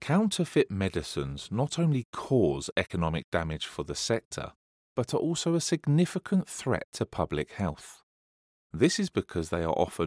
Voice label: Pharma Elearning